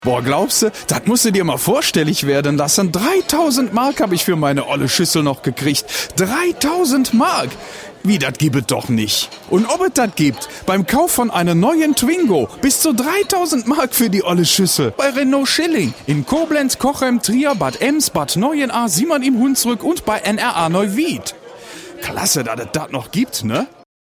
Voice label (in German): Ruhrpott; sprecher